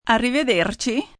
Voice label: "Arrivaderci", Goodbyes, female, foreign, Italian; (see you later)